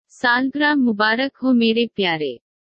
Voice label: happy birthday my dear urdu